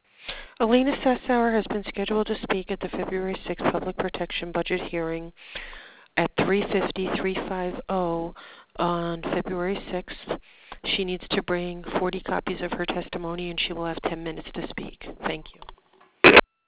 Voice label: voice message